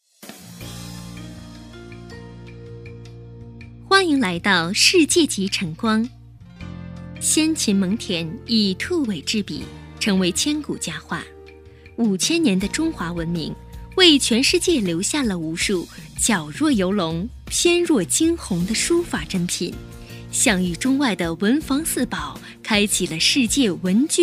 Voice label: 女 宣传片 企业 亲切

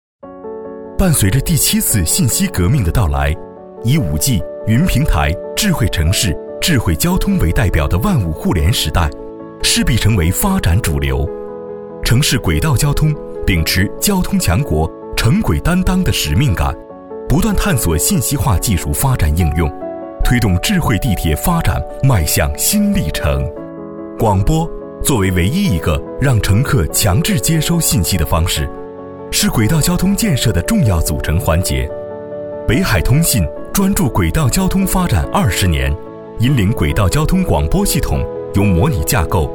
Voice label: 专题 企业 智慧广播 科技, 男; 天津

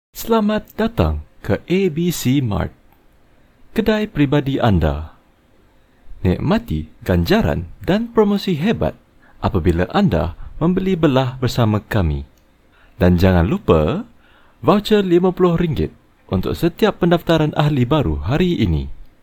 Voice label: 马来西亚语男, 外语, 小语种

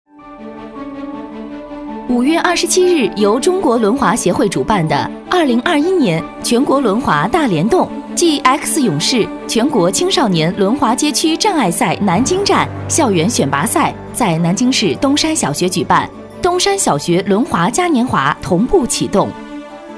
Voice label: 女 专题 新闻 新闻播报; 加油轮滑少年

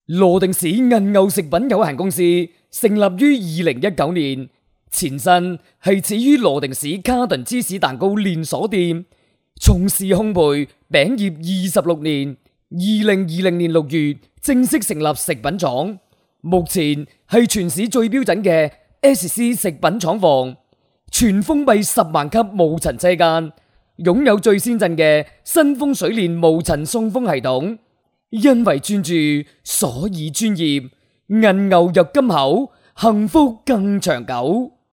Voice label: 专题 企业 激情, 男粤; 食品公司; 粤语

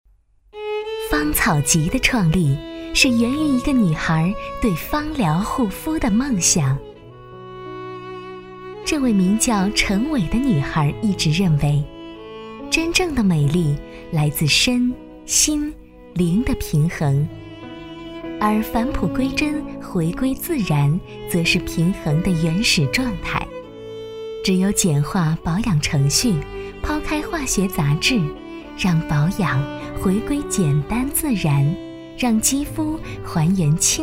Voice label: 专题 产品 知性; 芳草集

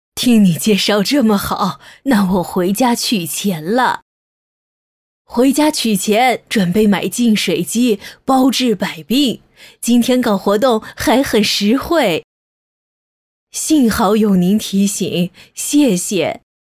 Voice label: 女 动画 老人 老太太; 李大妈

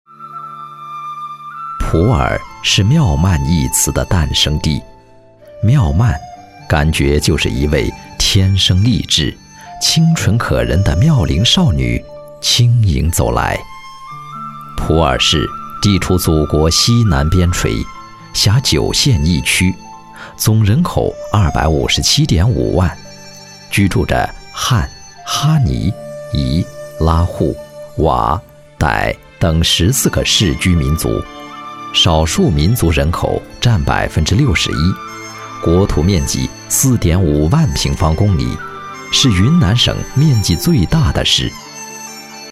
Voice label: 讲述; 产品; 世界茶源普洱

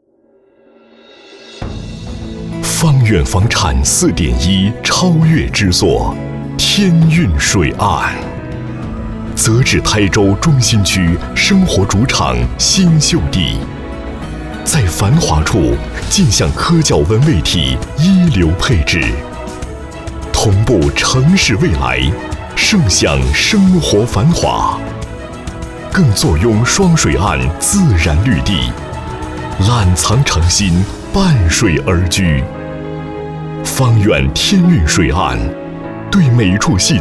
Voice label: 宣传片, 地产; 天韵水岸

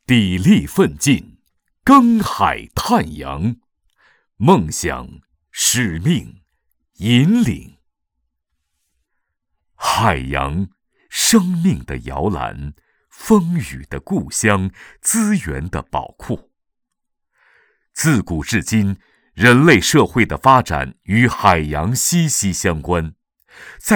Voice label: 专题 政府 海洋勘探宣传片

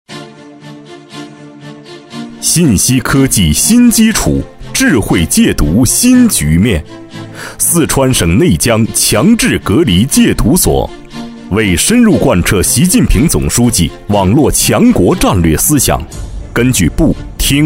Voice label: 专题 政府 智慧戒毒建设 大气, 男

